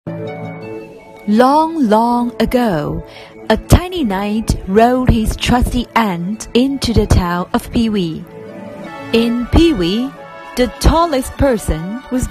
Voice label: 英文绘本; Sir Small and dragonfly

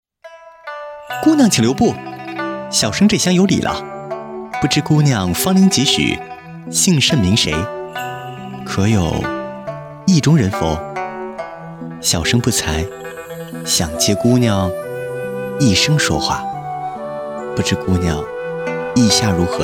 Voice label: 配音

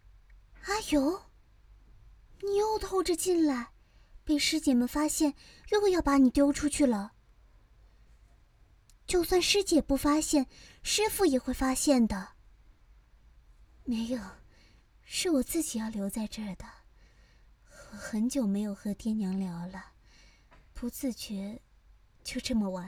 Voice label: 少女

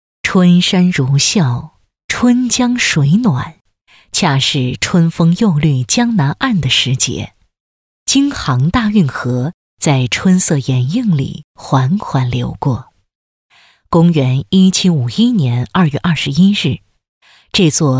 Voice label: 纪录片; 乾隆南巡之行

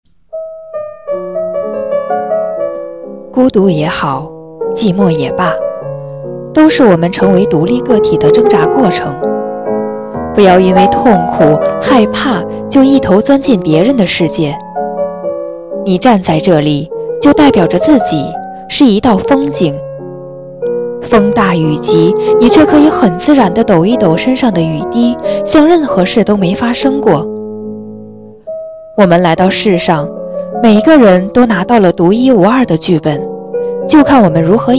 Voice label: 有声书缩混

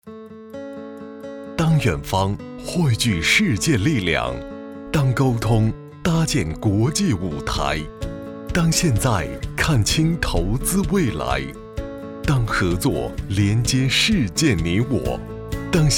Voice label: 大气 科技感 广告

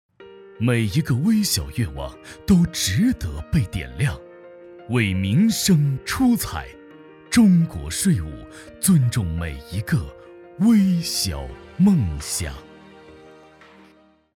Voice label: 广告; 中国税务

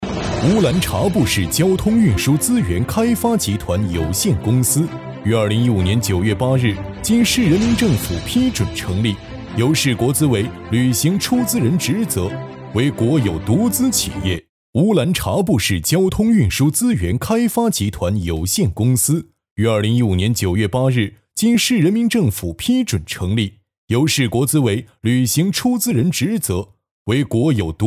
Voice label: 企业宣传 稳重磁性 男; 乌兰察布

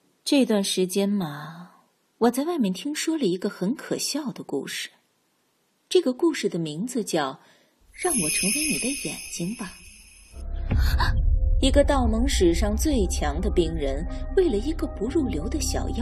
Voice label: 御姐音

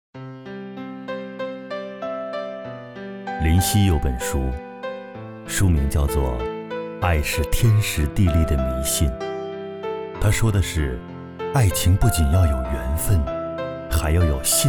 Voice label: 情感电台类

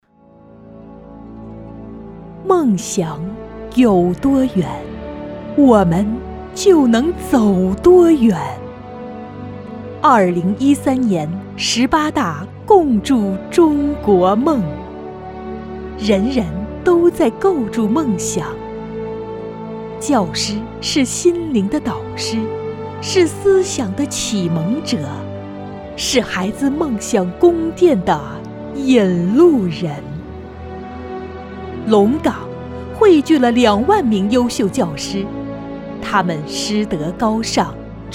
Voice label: 大气 厚重 学校 专题 女声

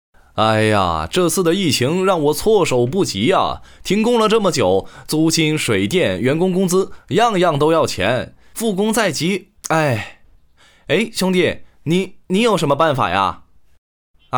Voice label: 场景对话配音

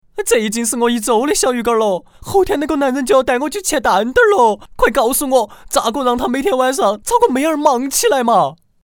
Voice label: 四川话

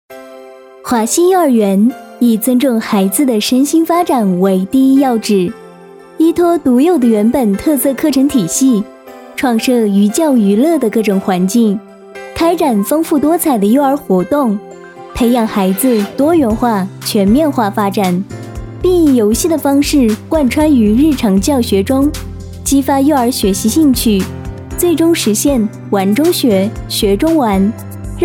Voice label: 宣传片幼儿园宣传成品配乐

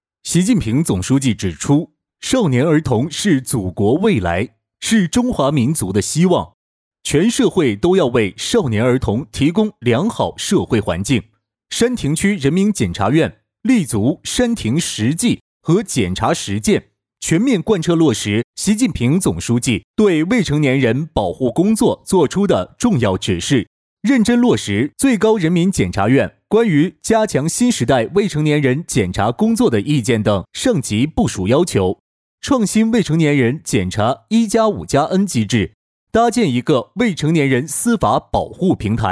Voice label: 新闻播报

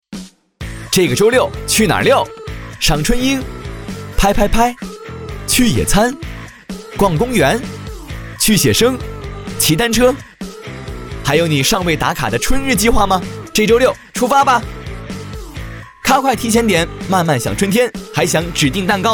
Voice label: 品质广告年轻活泼欢快; 春日出游计划

